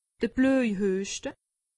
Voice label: "bloejhueschte", alsace; medecine

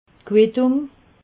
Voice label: "quittung", alsace

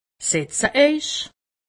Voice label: "setze", alsace; medecine

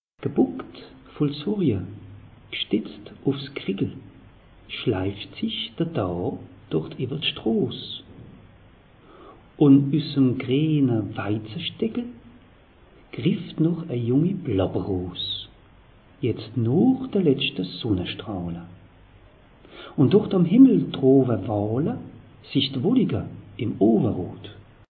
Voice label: "gebuckt voll sorje", alsace; meteo

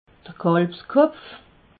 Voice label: "kalbskopf", alsace

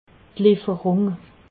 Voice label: "leferung", alsace

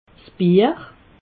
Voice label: "bier", alsace

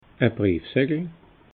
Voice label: "briefsackel", alsace

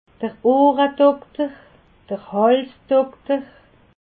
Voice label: "ohredokter", alsace

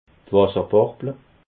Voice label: "wasserbarble", alsace

